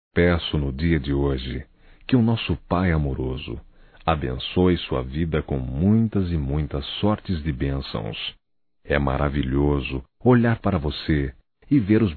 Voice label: Aniversário Neutro Masculino; Aniversarios; Telemensagens 2017

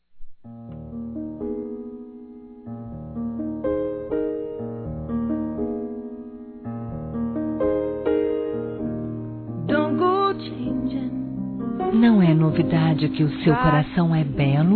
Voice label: Declaração de amor, fem; Telemensagens; Telemensagens 2017

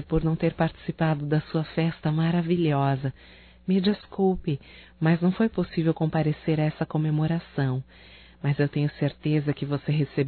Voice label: Aniversário Feminino, Telemensagens; Aniversário Atrasado Amiga Feminino

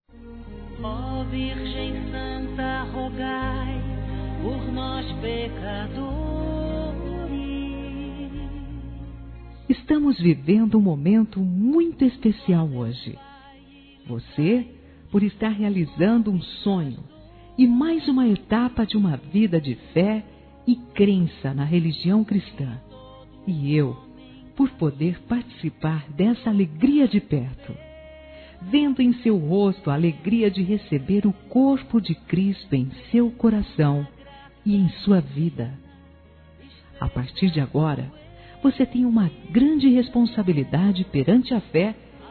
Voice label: PRIMEIRA COMUNHÃO F, Telemensagens, Gospel; fem; Telemensagens 2017

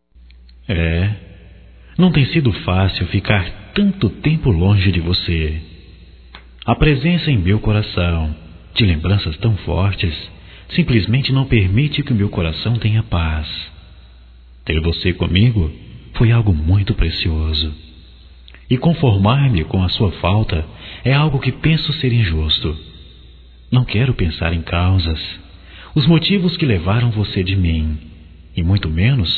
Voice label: SAUDADE ROMANTICA, Telemensagens, masc

